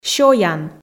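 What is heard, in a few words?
"сёян" Komi, female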